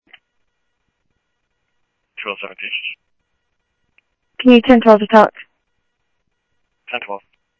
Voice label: PD Police, scanner audio

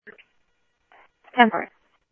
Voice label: Tempe Police, scanner audio; SimulcastF-Tempe

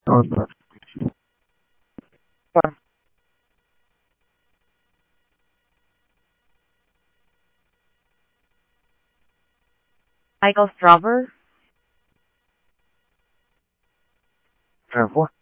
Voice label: Police, scanner audio trunk; H-Scottsdale

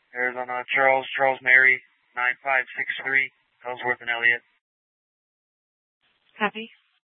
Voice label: Superstition Dispatch, Mesa PD

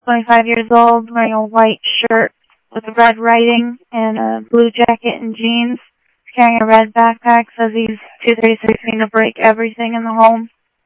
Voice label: Tempe Police, scanner

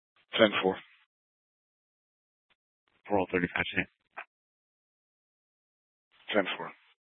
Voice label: Police South Dispatch

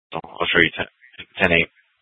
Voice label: Dispatch; Scottsdale

